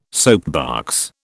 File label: "soapbox", British